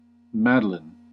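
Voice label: "madeleine", American English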